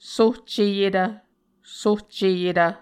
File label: "sohceyetv", Muscogee